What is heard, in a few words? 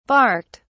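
"barked", British English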